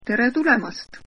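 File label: welcome, Estonian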